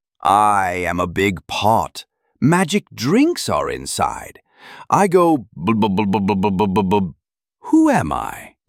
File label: cauldron, HALLOWEEN MYSTERY VOICES